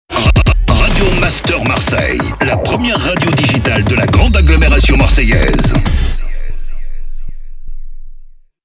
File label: Sweeper SPEED